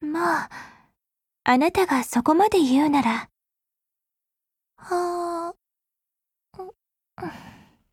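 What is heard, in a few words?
Japanese; Groza; Dreamscape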